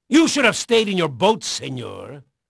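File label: Spanish male, voice; Pirates Of The Caribbean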